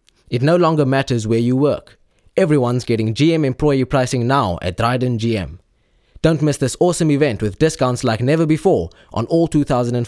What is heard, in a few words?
hard sell